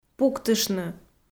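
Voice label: "пуктыштны", Komi, female; kpv audio dict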